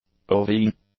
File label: "ovine", English; adjectives